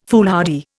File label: "foolhardy", Indian